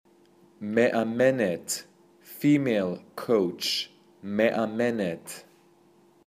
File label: Female Coach